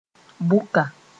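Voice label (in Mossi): "bʋka", Mooré; finely ground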